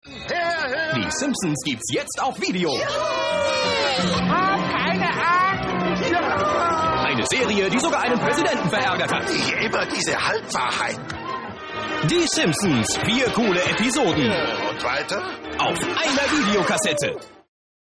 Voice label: off, Commercial (Werbung); LOFT VOICES

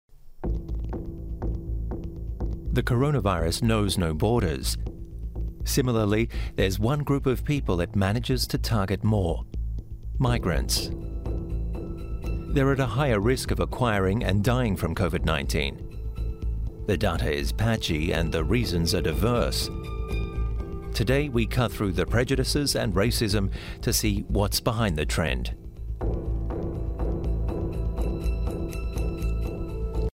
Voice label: EN Nachrichten Sachtext; DW; LOFT VOICES

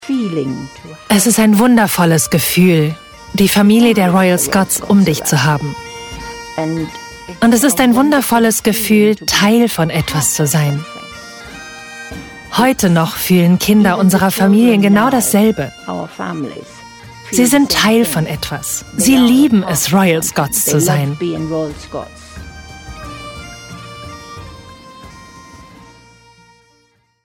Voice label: Over Voice; RoyalScots; LOFT VOICES

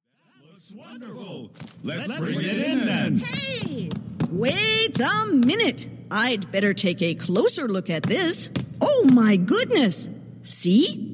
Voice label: Ad; Optiker Winter

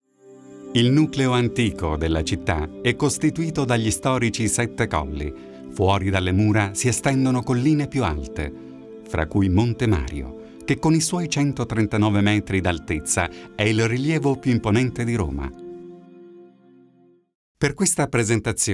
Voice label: corporate image e-learning; LOFT VOICES

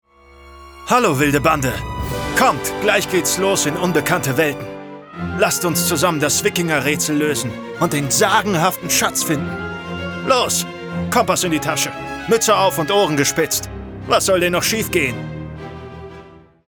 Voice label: Act Abenteurer, Lip-Sync (Synchron), German

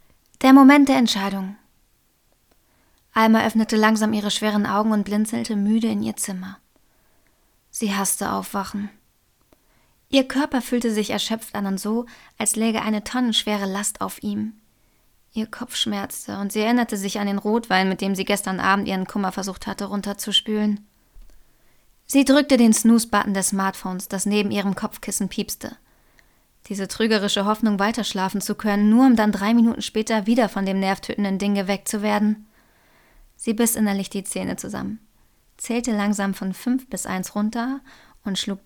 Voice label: Hoerbuch, Audiobook, German; Zurück zu mir

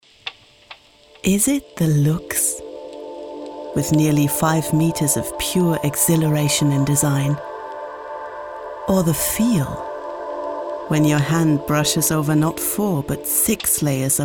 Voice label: Englisch Commercial Luxury Car; LOFT VOICES

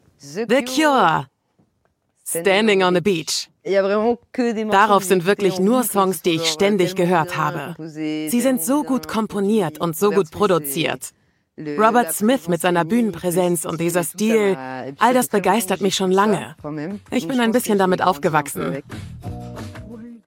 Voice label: VoiceOver; ArteTracks

